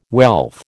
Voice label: "wealth", American

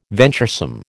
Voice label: "venturesome", American

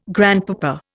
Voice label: "grandpapa", Indian

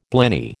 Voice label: "blenny", American

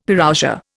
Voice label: "Parousia", Indian; Dictionary

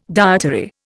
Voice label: "dietary", Indian

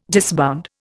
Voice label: "disbound", Indian

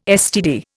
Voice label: "STD", Indian

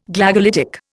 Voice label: "Glagolitic", Indian

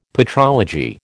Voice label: "patrology", American

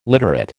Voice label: "literate", American

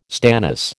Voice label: "stannous", American

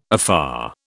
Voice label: "afar", British

